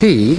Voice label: "p", male British